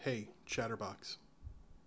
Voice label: hey chatterbox, en-us